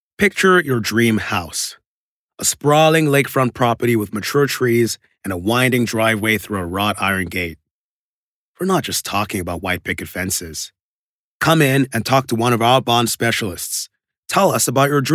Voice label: US Soft Sell